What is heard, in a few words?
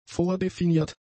"vordefiniert", German; adjectives